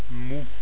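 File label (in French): "mou", French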